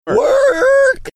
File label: work funny manner